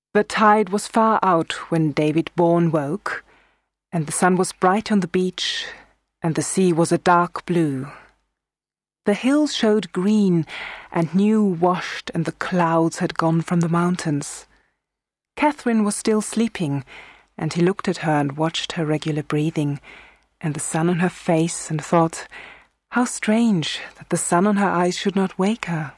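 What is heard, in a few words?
German English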